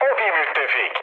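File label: Turkish player friendly fire, mood low, speech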